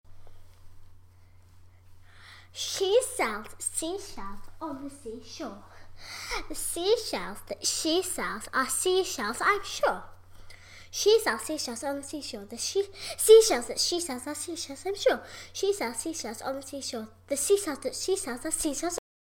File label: sea shells, Tongue Twisters